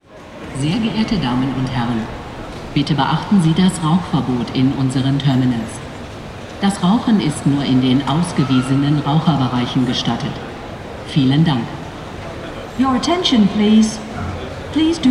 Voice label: Non Smoking Announcement Stuttgart Airport